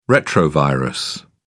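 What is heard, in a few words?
"retrovirus", British English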